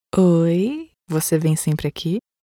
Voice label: error page 500 sexy female brazilian portuguese voiceover